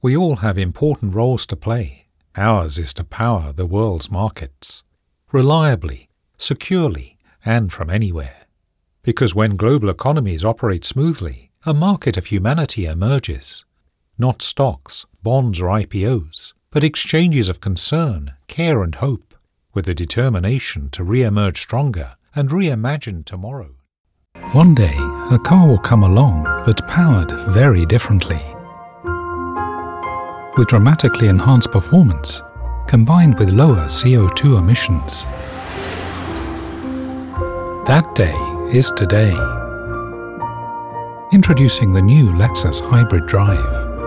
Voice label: Authority